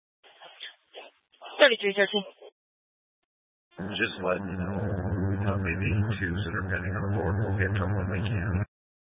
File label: Police Department Dispatch; Grand Junction